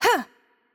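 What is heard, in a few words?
Hungarian attack light voice; bloom minigame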